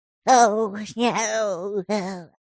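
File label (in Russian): zvuk neponyatnoi tarabarshiny, sound of incomprehensible gibberish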